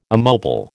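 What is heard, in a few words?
"immobile", American English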